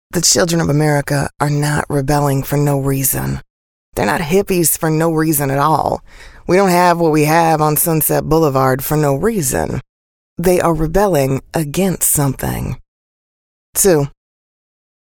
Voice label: woman american